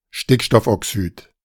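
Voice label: "Stickstoffoxyd", German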